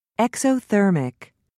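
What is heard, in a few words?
"exothermic", British English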